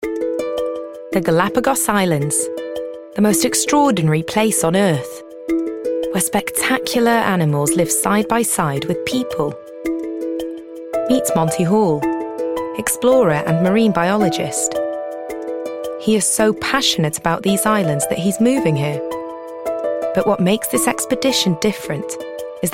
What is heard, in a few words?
english british narration